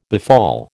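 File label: "befall", American English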